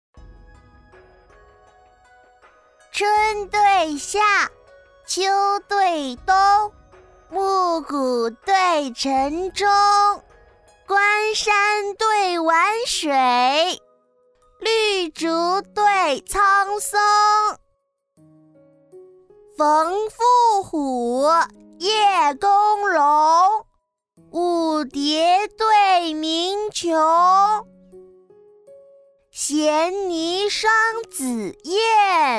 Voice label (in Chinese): 女 童声